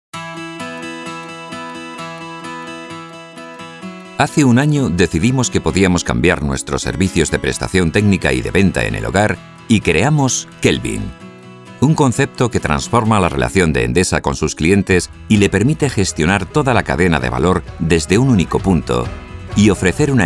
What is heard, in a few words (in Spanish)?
Masculino; Sonoarte